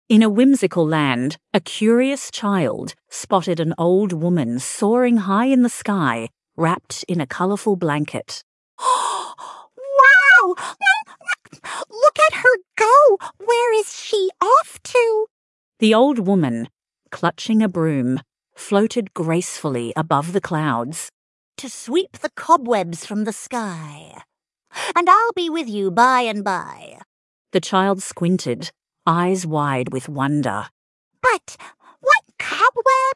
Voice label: fem aus story; There Was an Old Woman Tossed Up In a Blanket